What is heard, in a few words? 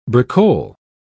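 "bricole", English, British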